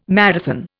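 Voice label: "marathon", Indian English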